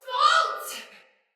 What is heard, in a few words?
Shoot Arrow, Soldier Female; Sinecure Soldier Female A